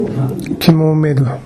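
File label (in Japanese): "kimomeru", 南山形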